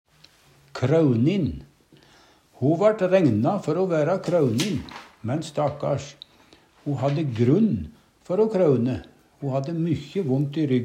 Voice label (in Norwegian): krounin, Numedalsmål